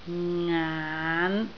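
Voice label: "ngaan5", Cantonese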